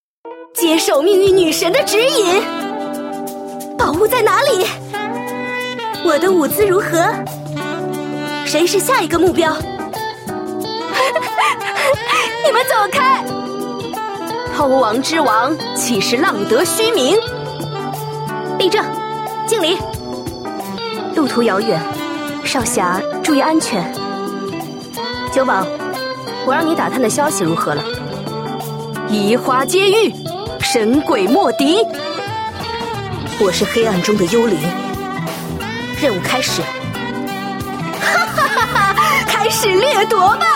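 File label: female young woman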